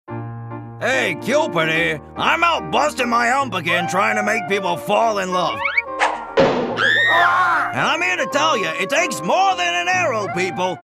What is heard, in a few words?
cartoon